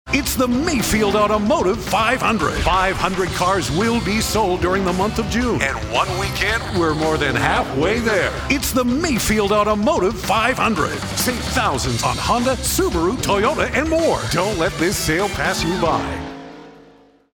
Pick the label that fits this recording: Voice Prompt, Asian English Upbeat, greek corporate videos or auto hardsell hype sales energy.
auto hardsell hype sales energy